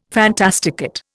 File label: "fantasticate", Indian